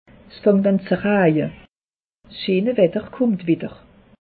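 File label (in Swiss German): "fangtanzeraje", Alsatian; meteo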